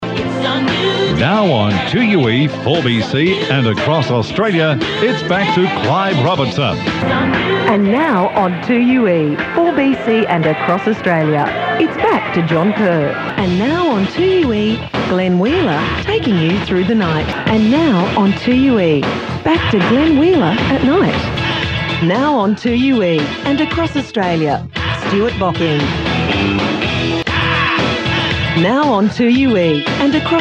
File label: Voice Over Comparison